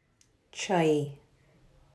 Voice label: Farsi

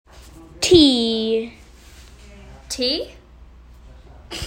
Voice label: in British accent

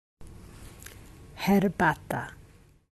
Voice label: in Polish

